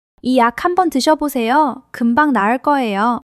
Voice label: joy

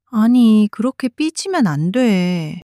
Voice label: sadness; monologue

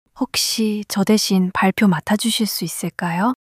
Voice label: shy; monologue

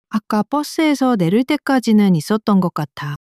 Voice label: sadness, monologue

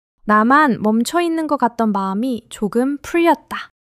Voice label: joy

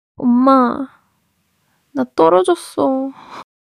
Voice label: crying, monologue

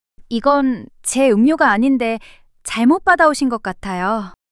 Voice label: shy; monologue

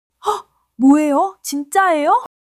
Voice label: excitement; monologue

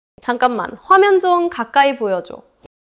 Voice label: neutral, monologue

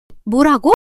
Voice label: surprise, monologue

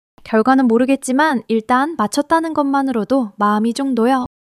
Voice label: joy

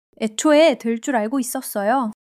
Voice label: joy; monologue

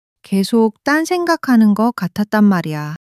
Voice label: sadness, monologue

